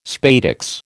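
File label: "spadix", American English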